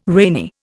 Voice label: "rainy", Indian

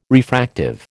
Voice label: "refractive", American

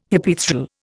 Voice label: "Hypaethral", Indian

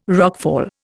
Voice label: "rockfall", Indian

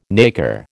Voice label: "nacre", American

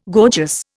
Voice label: "gorgeous", Indian

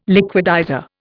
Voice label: "liquidizer", Indian

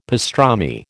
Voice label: "pastrami", American

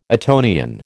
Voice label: "Etonian", American

